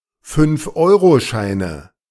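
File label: "Fünf-Euro-Scheine", German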